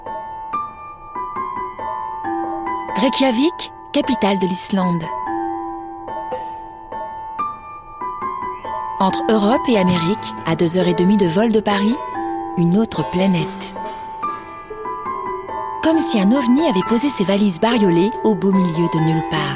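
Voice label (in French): narrative posee, voix off féminine; Reykjavik capitale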